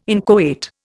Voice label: "inchoate", Indian